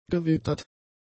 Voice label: "gewittert", German; adjectives